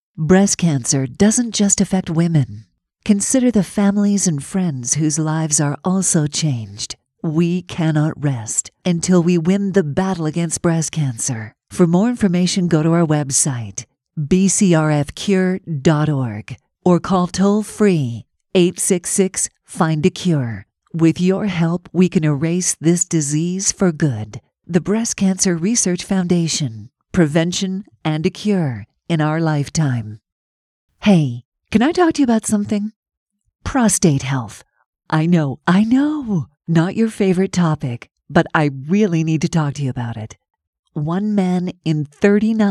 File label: Promotional